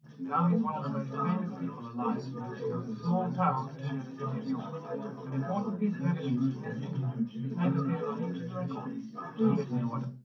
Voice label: male4; SiSEC10; underdetermined

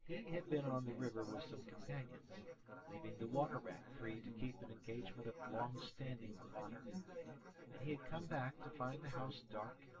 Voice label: male synth conv sim; SiSEC10; underdetermined

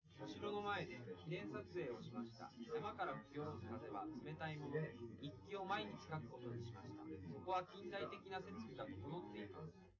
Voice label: male4 liverec 250ms 1m sim

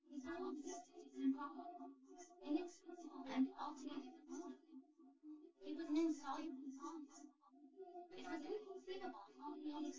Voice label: female, synthconv

